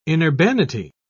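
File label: "inurbanity", English, uk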